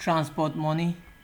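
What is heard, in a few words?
"transport money", Nigerian Pidgin, male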